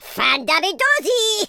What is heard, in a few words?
excellent, Scotsman; speech